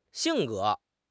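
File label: "xing4 ge2", Mandarin Chinese; HSK; HSK4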